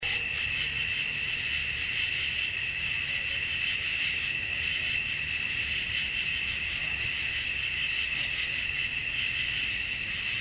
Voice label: female voice; Case 016 Hebrew-Bryant Cemetery